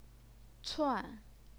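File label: "cuan", fourth tone, Chinese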